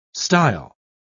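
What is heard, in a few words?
"style", English word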